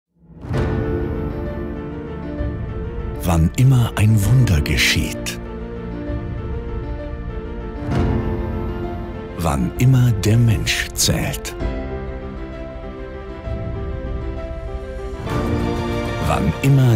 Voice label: TV Commercials General public, German voice-over, Challenging and Generous; Ihre Krankenhäuser